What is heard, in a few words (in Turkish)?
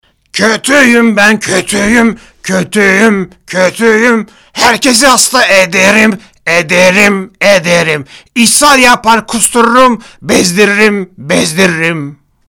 REKLAM